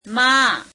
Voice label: gujarati in; માં [meām]